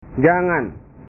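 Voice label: "jangan", Indonesian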